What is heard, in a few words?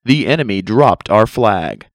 enemy dropped, announcer; red; construct